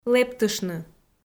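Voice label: "лэптыштны" Komi, female; kpv audio dict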